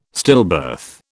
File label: "stillbirth", British